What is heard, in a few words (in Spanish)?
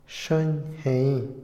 "difícil", Otomí, hombre; INALI